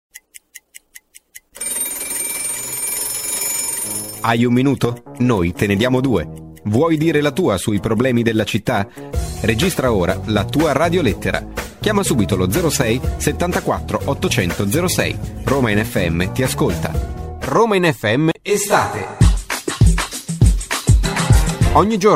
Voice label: italian promos

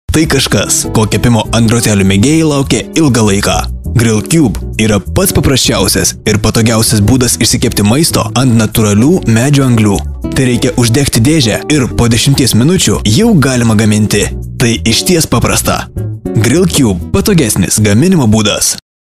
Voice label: lithuanian commercial; Litauisch; Litauen

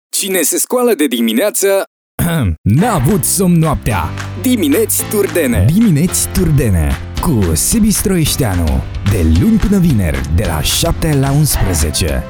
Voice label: romanian podcasts

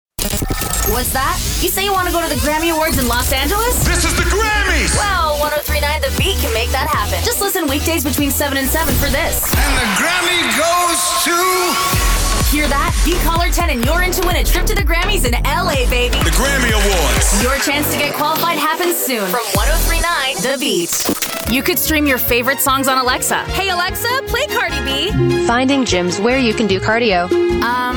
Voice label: english american radio imaging

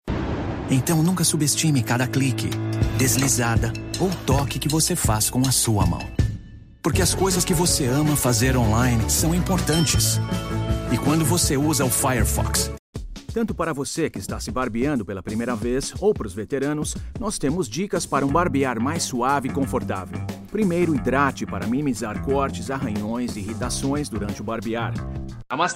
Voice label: portuguese brazilian commercial

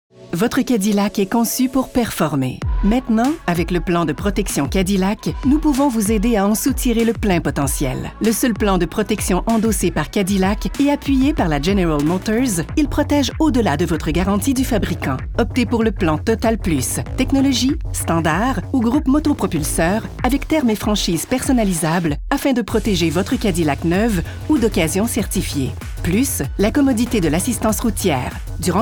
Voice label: french canadian automotive; Kanada

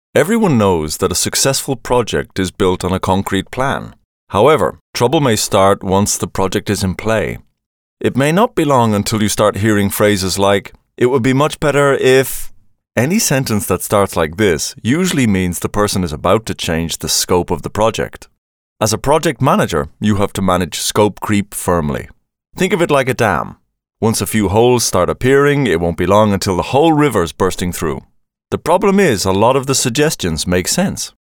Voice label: english irish e-learning

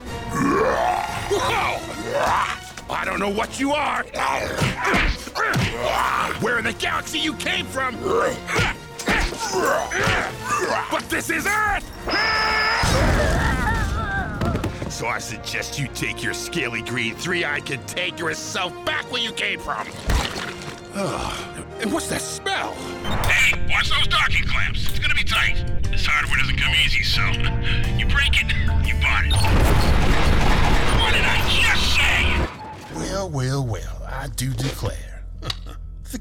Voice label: english american video games

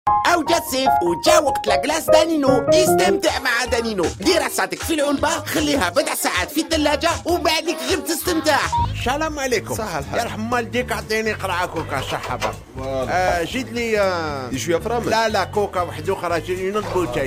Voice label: Arabic animation

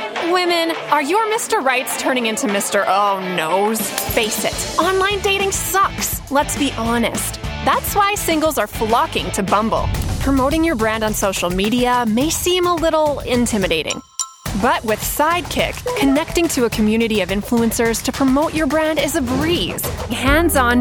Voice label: english canadian commercial; baseada no Canadá

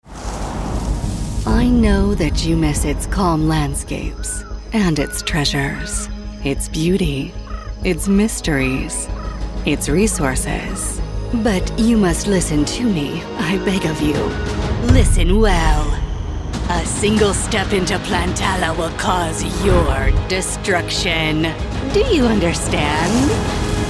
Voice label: english american movie trailers; professional North American woman voice over talent

